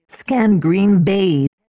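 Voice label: Scan Green Bay, Female Voice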